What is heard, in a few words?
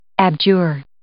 "abjure", English